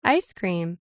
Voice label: "Ice cream", Turkish